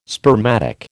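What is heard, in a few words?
"spermatic", American English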